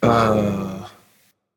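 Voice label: "seriously", male; smite; olorun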